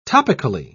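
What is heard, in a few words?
"topically", British English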